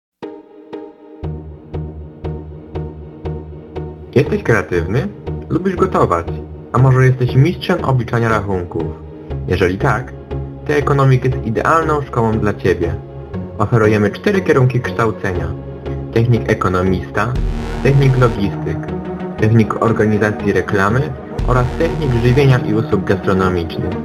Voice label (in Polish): Reklama radiowa; Ekonomika